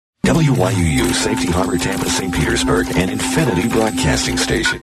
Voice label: Station ID; WYUU

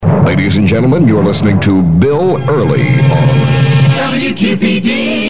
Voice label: sweeper; WQPD; 1972

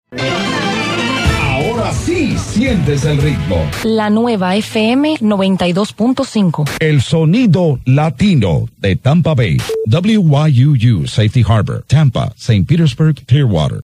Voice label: Station ID (Spanish); WYUU

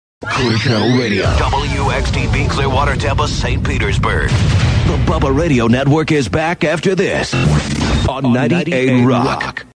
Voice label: station ID; WXTB

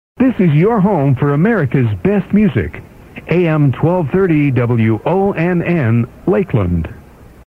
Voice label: station ID; WONN; 2011

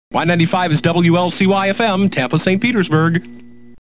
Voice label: FM Station ID; WLCY

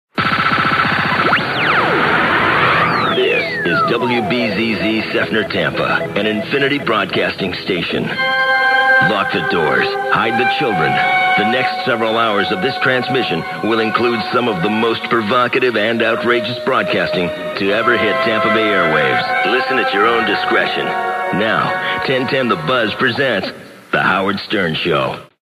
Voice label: Station ID warning; WBZZ

